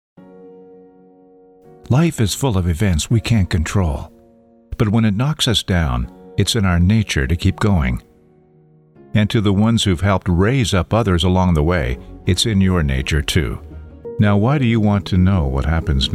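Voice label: Caring gentle reads, voiceover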